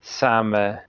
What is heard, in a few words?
"same", Anglo Saxon